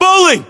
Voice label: name bowling, localized english battlechatter us